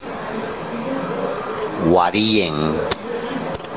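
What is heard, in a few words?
"wariyeng" canoe part; Used to hold up mast on sailing canoes; Fishing Lore of Tobi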